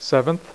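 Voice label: "seventh" spoken, poker; iPoker 2000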